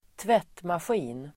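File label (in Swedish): "tvättmaskin", swedish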